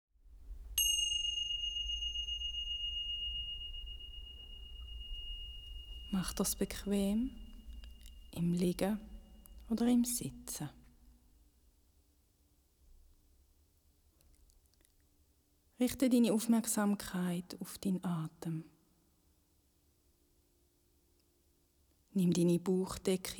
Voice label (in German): Atemmeditation